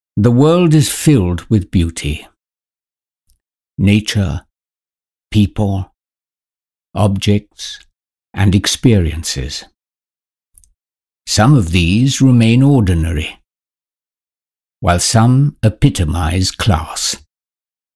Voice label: vo, male voice; jaquar

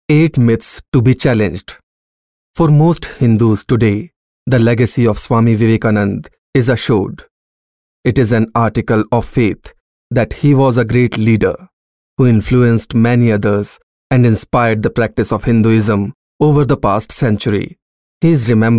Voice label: English Narration, male voice

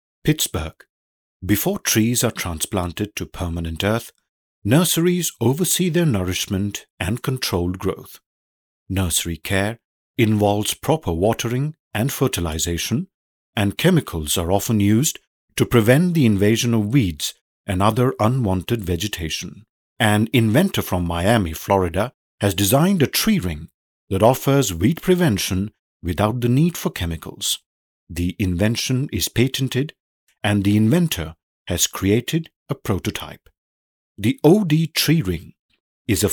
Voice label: eng, male voice

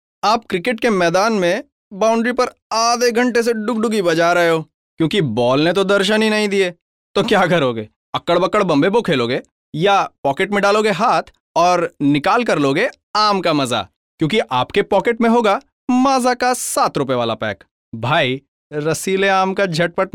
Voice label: hindi sample, male voice

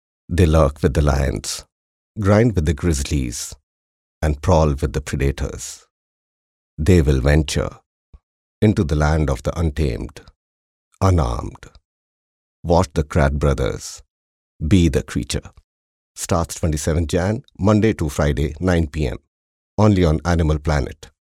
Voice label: eng, male voice

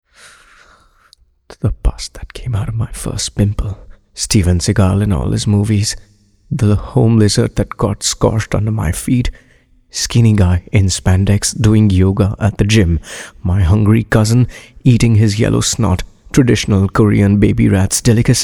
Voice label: english condom, male voice; Manforce

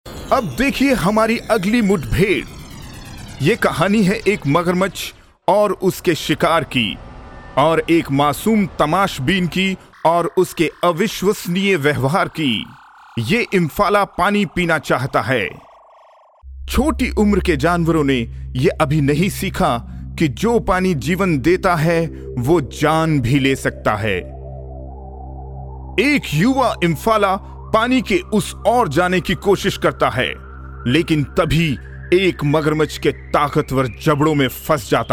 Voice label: Animal, Discovery (Hindi), male voice

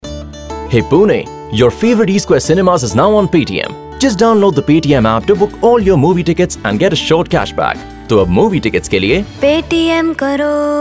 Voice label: Eng MVO, male voice; Paytm

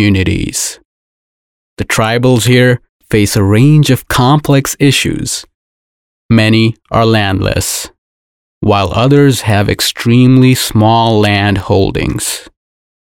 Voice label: Maharashtra District Vo, male voice

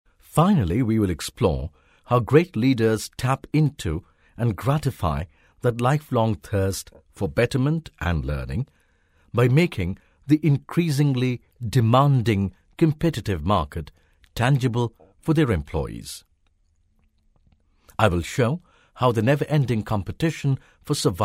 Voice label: english sample, male voice

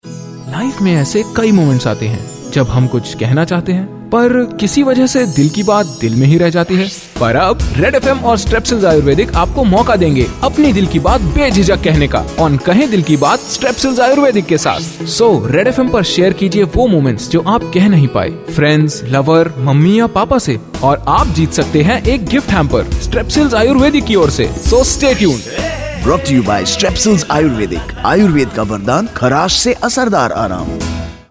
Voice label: PROMO, male voice; STREPSILS

